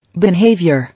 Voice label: "Behaviour", English